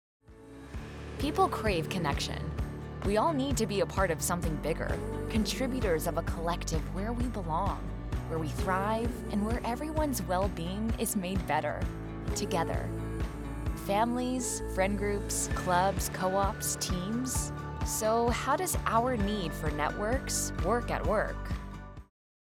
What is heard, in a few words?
english american promos; professionelle Synchronsprecherin